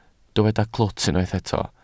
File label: "clwt", Welsh